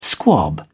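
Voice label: "squab", English, British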